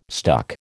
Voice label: "stuck", American